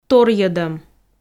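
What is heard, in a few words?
"торйӧдӧм", Komi, female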